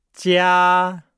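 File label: "jia", Chinese; Nihao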